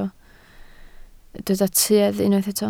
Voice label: "tuedd", Welsh